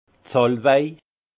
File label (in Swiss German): "salwei", Alsace; vin; sauge